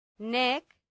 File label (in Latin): "nec", latin; santillana